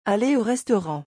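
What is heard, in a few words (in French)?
"aller au restaurant", French; vocabulaire; le lexique des vacances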